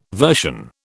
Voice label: "version", British